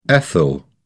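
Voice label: "ethyl", British English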